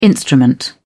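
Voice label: "instrument", British English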